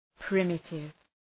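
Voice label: "primitive", English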